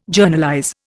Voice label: "Journalize", Indian

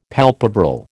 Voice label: "palpebral", American

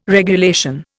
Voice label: "regulation", Indian

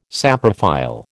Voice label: "saprophile", American

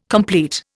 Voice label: "complete", Indian

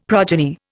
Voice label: "progeny", Indian; Dictionary

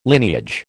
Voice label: "lineage", American

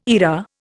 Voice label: "eyra", Indian

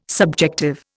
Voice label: "subjective", Indian

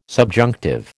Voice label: "subjunctive", American

